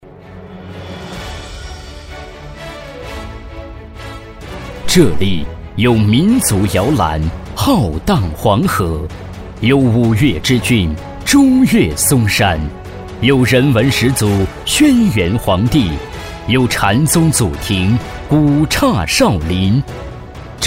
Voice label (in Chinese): 男 专题